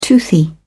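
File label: "toothy", British English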